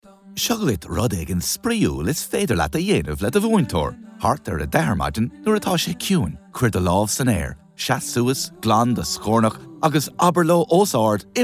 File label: Reads Irish, Irish voice over artist